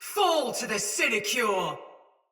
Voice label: Attack Heavy, Barks, Battle, Female; Sinecure Soldier Mace Agent; Sinecure Melee